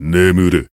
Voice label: finish jp